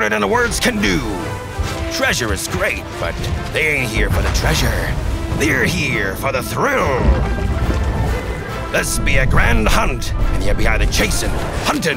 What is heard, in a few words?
male, English with pirate character accent; world of warships promo